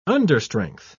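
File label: "understrength", English, British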